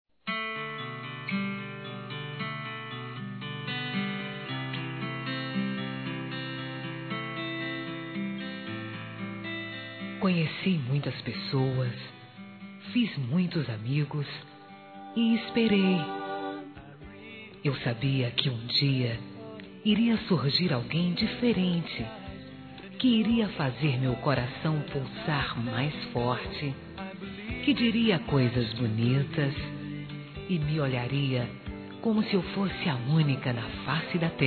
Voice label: Inicio de Namoro Feminino; Telemensagens; Telemensagens 2017

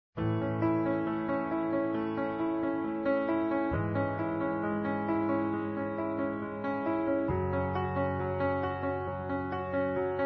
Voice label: Dia Internacional da Mulher Neutro Masculino; Telemensagens 2017

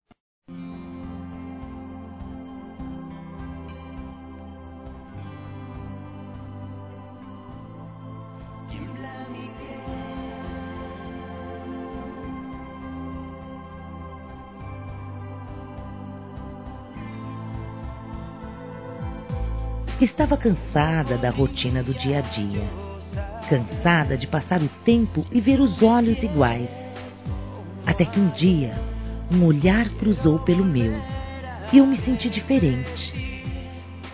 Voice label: Te Quero FEM; Telemensagens; Conquistas Cantadas paqueras; Telemensagens 2017

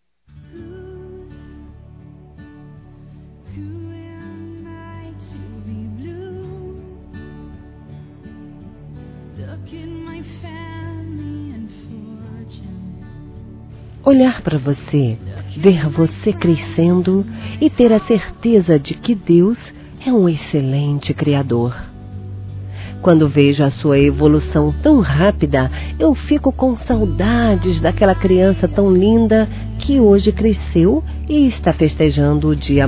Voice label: Sobrinho (a) Adolescente Feminino; Aniversário Infantil; Telemensagens

